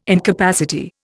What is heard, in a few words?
"incapacity", Indian